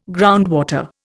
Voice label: "groundwater", Indian